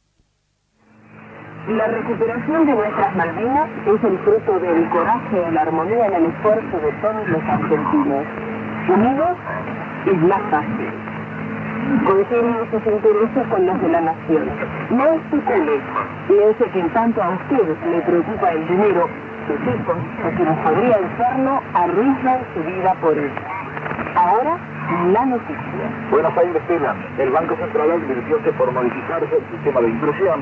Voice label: Radio 11710 kHz; Radio Del Plata